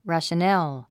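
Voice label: "rationale", English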